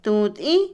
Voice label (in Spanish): Otomí, mujer; tragar; Comida; INALI